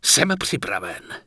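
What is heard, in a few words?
Night Elf Male error no mana, Czech; wowpreklad; czwow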